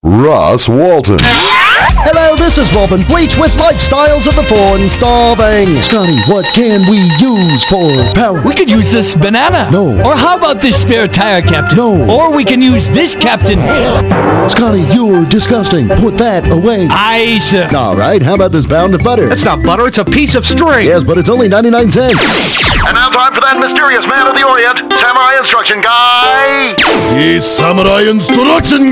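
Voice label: Characters, Male voice English; Male voices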